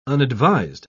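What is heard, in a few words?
"unadvised", British English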